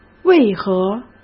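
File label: "为何", Mandarin Chinese; chinese-flashcards; hsk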